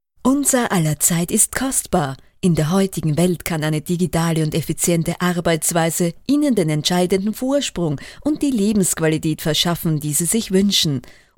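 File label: Promotion German Female Voiceover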